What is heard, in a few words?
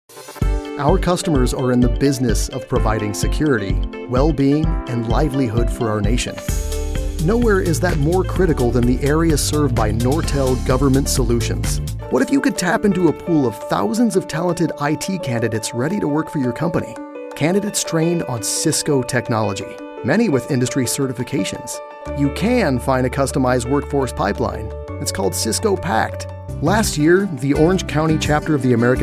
Corporate Narration